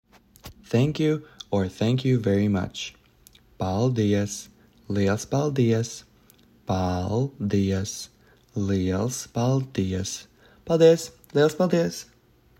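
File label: Thank you Thank you very much, Latvian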